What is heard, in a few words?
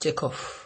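"take off", Nigerian Pidgin, male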